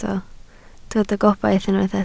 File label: "gobaith", welsh